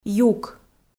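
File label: "юк", Komi, female; kpv audio dict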